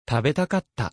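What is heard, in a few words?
"食べ", Japanese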